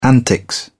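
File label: "antics", British English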